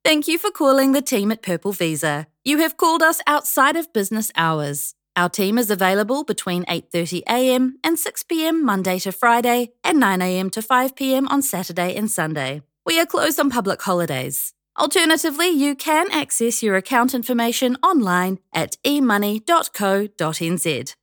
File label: Phone Credit Card Night Intro, professional voicemail greeting; Finance Now